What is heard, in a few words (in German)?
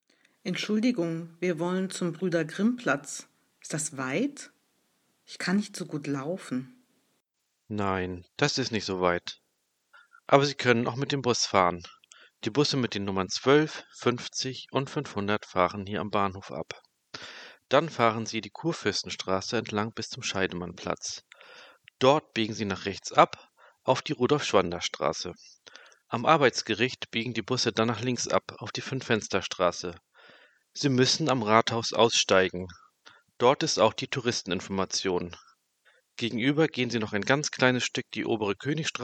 Dialog